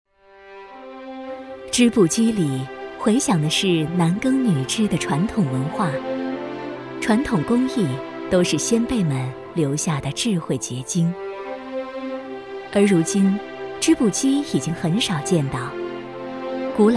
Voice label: 人物解说, 大气

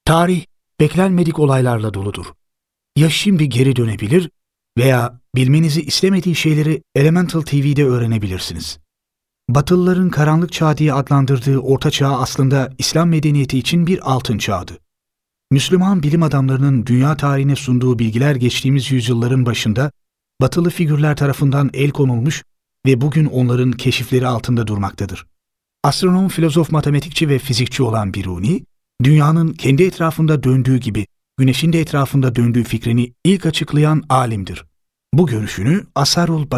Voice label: 土耳其语男 外语 小语种

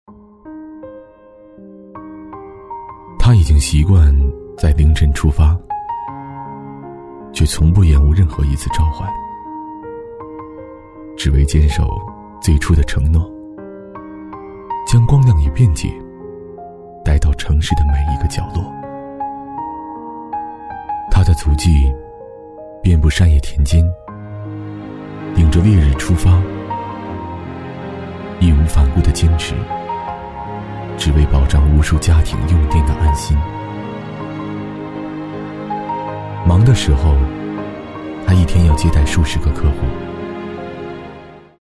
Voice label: 男国 其他 微电影 电力 时尚

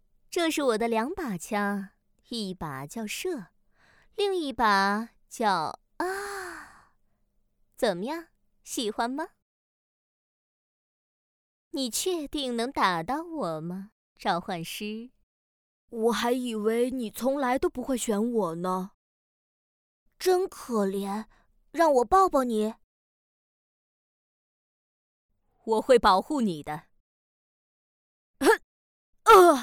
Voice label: 动画 游戏 角色合集

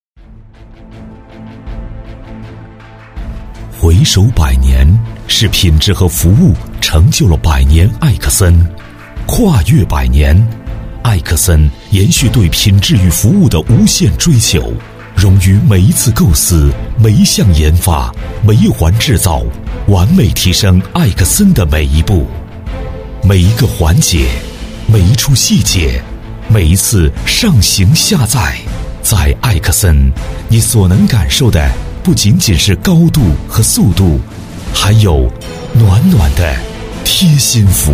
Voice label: 男国 专题 产品 电梯 浑厚

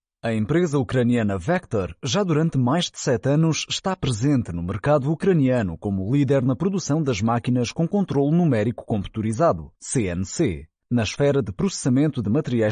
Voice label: 葡萄牙语男 外语 小语种

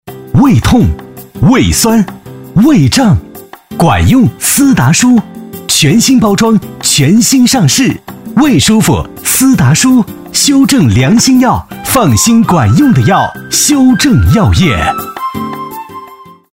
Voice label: 广告 医院医药 年轻, 男国; 斯达舒

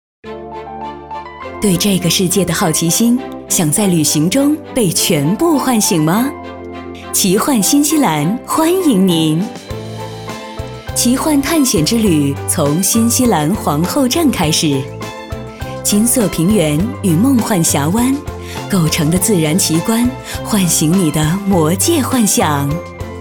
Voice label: 宣传片 旅游 奇幻新西兰 时尚

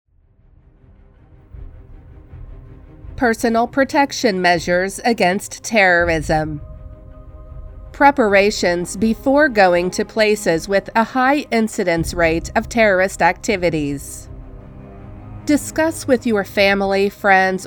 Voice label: 外籍女英 美式英语 大气; 反恐主义个人保护措施

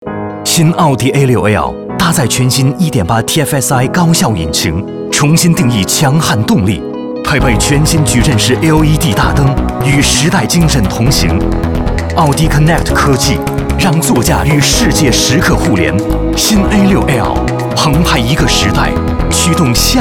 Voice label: 广告 汽车; 新奥迪A6L

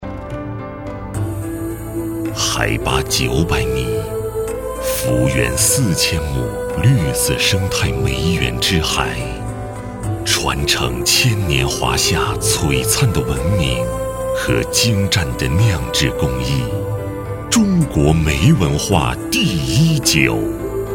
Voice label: 广告 酒类 成熟, 男国; 梅鹤山庄酒

